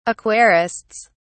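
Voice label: "aquarists", British English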